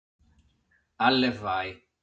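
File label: "Allevai", Sardinian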